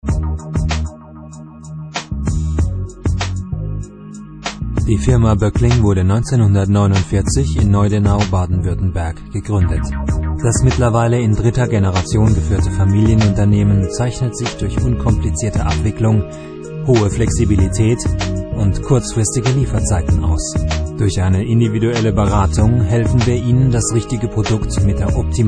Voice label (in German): Imagefilm